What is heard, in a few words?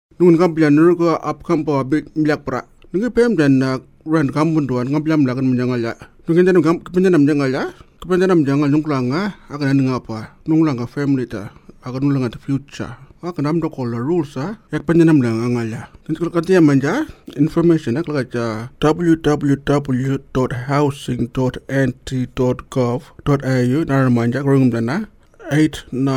Anindilyakwa